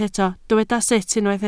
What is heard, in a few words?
"sut", Welsh